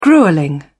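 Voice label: "gruelling", British English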